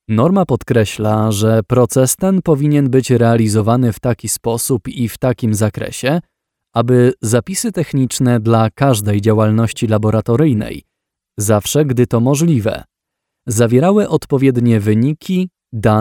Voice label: elearning